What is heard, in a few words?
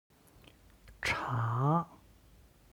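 "cha", Chinese; 茶; お茶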